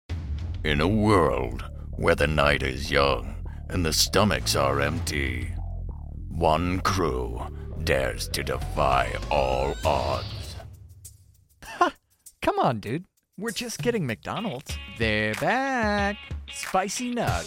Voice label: Commercial, voice actor; Commercial Demo Reel